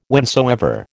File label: "whensoever", American